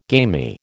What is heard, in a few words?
"gamy", American